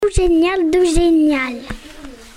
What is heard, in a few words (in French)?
témoignage enfant